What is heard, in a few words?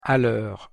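pronunciation "a lheure", French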